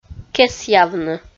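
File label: "кесъявны", Komi, female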